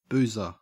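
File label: "boozer", Australian English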